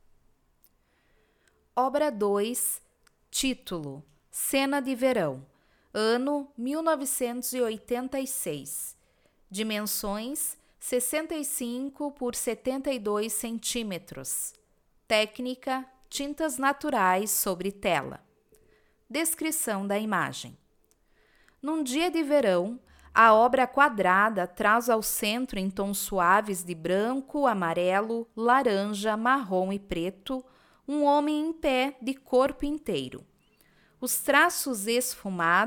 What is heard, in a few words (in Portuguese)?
audiodescricao; Galeria Virtual